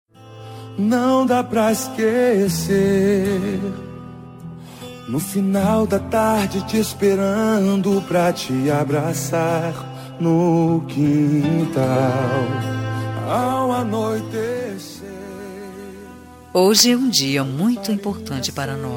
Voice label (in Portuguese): fem plural, Dia dos Pais Plural – Voz Feminina